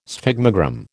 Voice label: "sphygmogram", American